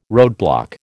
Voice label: "roadblock", American